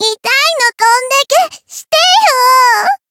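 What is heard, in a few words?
win barely, Japanese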